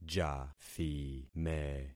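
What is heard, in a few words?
"jathime", concatenated syllables; Affixing experiment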